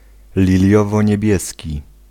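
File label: "liliowoniebieski", Polish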